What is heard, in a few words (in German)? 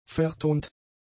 "vertont", German; adjectives